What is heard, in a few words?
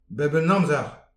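"bep un amzer", Breton; petit precis de prononciation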